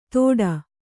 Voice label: "ತೋಟಾ", Kannada